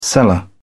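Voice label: "seller", British English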